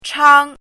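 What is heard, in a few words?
"chāng", Mandarin Chinese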